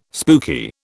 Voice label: "spooky", British